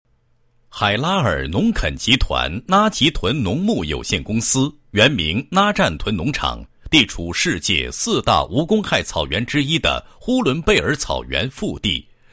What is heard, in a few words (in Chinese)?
男 汇报; 农场成人文化技术学校汇报材料